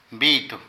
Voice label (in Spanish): Cerumen, Zapoteco de Petapa; Cuerpo; Vocabulary